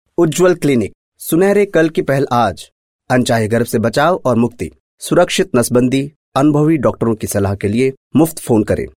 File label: male voice